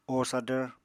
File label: "o sadvr", Apatani